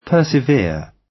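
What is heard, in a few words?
"persevere", British English; Time, history; Thời gian, lịch sử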